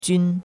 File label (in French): "jun1", chinois; Cours de Chinois